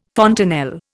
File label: "fontanelle", Indian